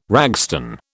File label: "ragstone", British